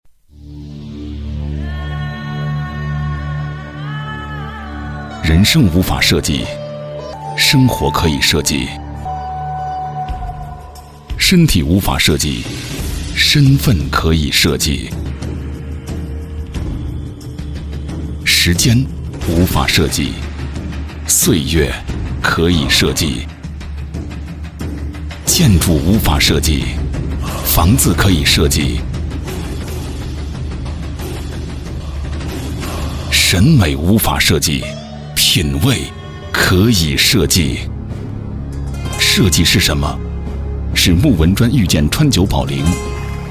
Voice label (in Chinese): 专题, 产品, 成熟; 萨米特设计篇